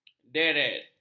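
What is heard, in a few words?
"deret", Malay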